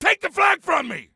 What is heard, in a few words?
Flag Take, Soldier Male; Soldier Male 03